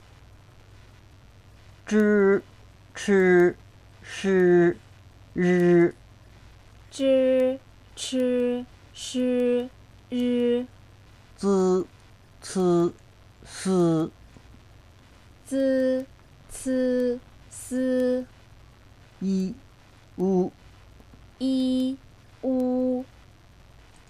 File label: pinyin, chinese